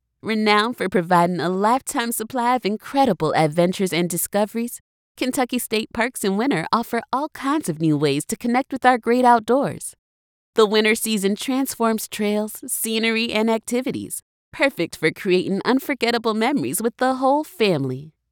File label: Southern Accent